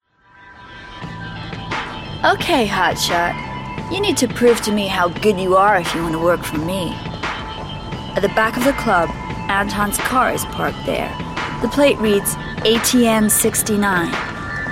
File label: Gaming Gangster Script